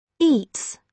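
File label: "eats", British English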